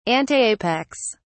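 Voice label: "antapex", British English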